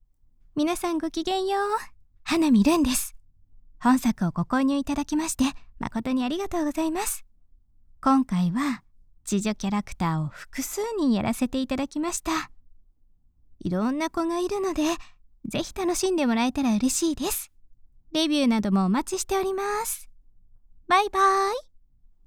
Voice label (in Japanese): フリートーク